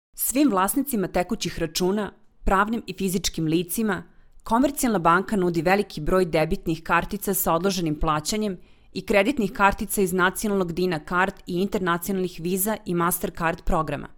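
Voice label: Serbian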